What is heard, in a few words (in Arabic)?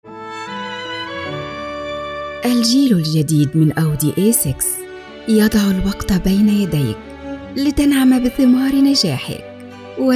اعلان بالموسيقى; اودى A6